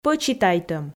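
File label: "пӧчитайтӧм", Komi, female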